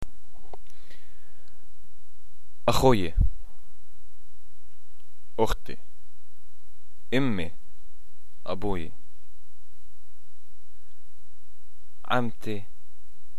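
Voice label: family, Palestinian arabic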